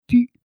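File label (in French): "pus", French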